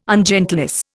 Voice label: "ungentleness", Indian